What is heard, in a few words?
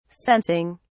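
"sensing", British English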